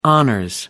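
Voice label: "honors", British English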